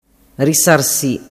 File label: "risarsi", dialettu